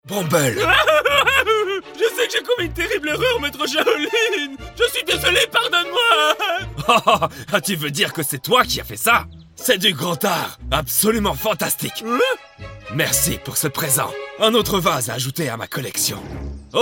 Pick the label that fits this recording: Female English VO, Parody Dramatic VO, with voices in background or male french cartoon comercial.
male french cartoon comercial